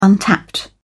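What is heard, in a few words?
"untapped", British English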